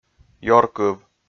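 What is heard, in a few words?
"ёркыв", Komi, male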